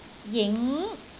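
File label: "jing2", Cantonese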